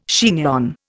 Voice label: "chignon", Indian